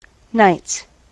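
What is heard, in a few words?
"nights", US English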